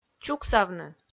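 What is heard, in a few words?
"чуксавны", Komi, female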